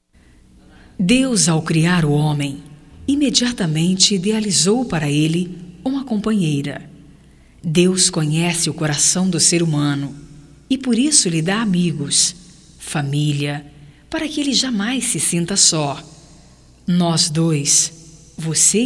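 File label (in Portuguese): RECONCILIACAO AMIZADE, female, Telemensagens, Só Voz; Telemensagens 2017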